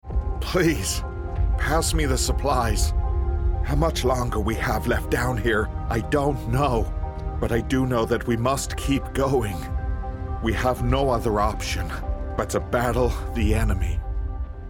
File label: Character